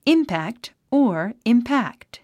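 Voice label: "impact", English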